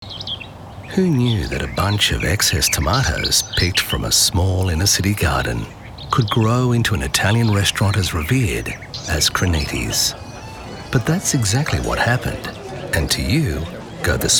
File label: Smooth Soft Relaxed